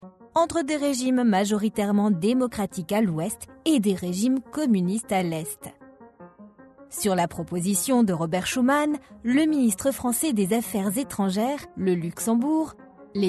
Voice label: Voix Off femme, Voix didactique, medium; Histoire à la carte

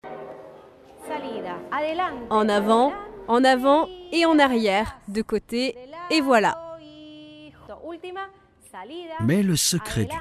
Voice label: Voice Over Voix Off femme; Les secrets du Tango

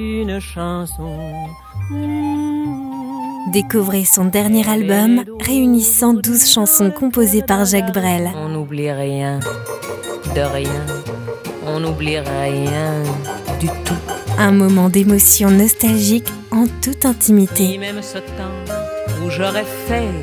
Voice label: SPOT RADIO Voix posee chaleureuse; Habillage Radio; Voix douce, intimiste, prenante

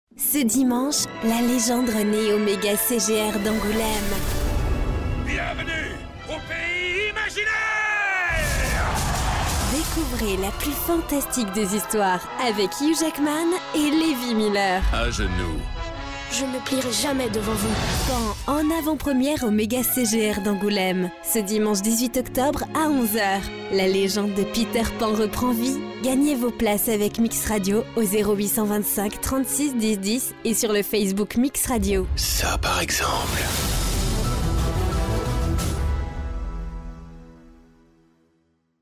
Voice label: voix off femme bande annonce; Voix Prenante, enthousiaste; peter pan

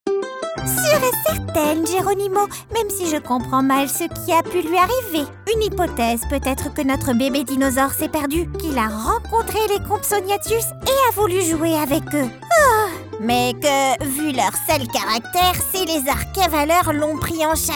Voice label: PERSONNAGES DESSIN ANIMES

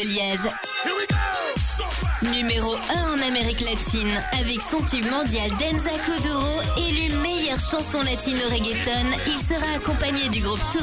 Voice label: Spot Radio

